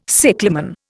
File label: "cyclamen", Indian